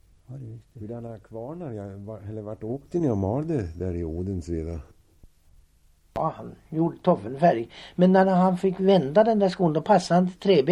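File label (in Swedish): Balingsta Uppland, dialekter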